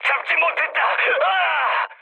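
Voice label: Korean bomb failure mood high, speech; War Thunder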